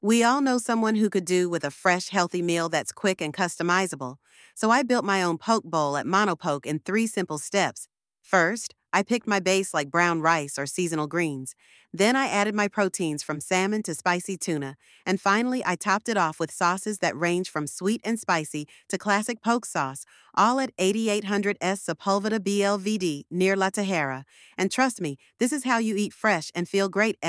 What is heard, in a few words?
voiceover; 3-Step Poke Bowl Recipe; Mono Poke; Showcase the simple 3-step process to build a fresh poke bowl with endless topping options; Kaylin AI